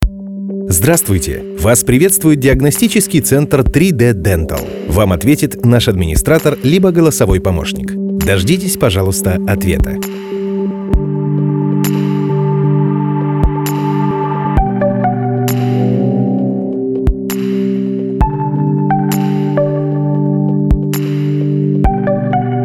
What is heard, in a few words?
ivr